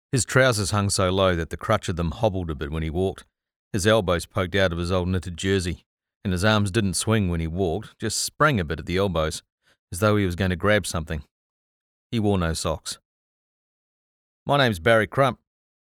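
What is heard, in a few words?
NZ audiobook, New Zealand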